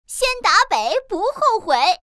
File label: north, voice woman